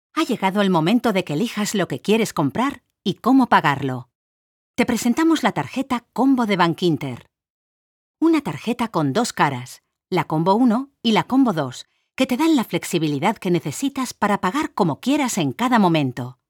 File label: spanish corporate videos